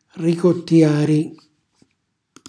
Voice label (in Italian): "ricottjari", Calabrese; palparsi; cucina calabrese